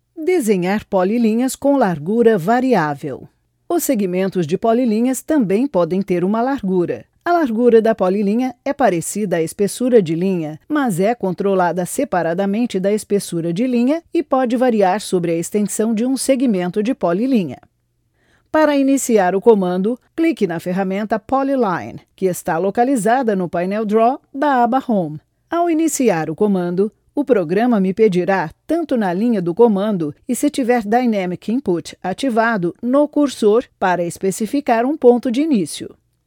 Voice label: female E-learning, portuguese